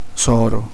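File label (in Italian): "sorella", Italian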